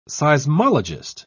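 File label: "seismologist", British English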